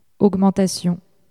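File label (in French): "augmentation", French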